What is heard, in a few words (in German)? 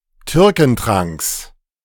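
"Türkentranks", German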